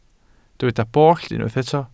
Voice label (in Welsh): "bollt", Welsh